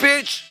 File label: "bitch", expletives